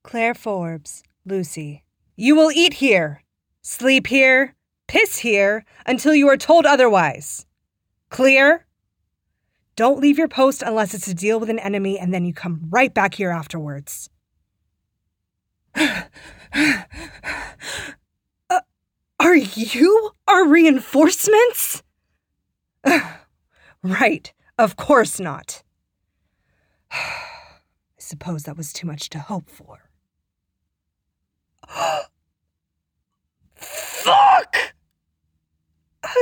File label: Female